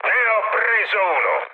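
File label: Italian player killed enemy mood high, speech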